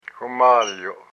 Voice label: "whimalyo'", hupa